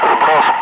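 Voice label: Russian speech, Ka-50 Wingman; Messages bombs away; DCS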